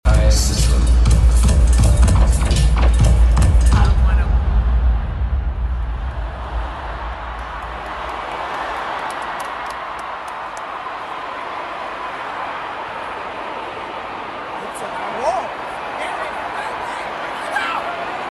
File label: MIC CUTS OUT